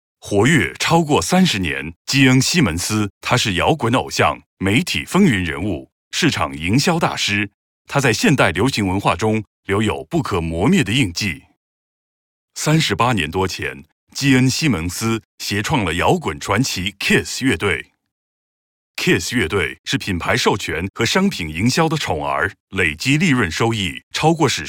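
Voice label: Chinese promo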